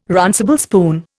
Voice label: "runcible spoon", Indian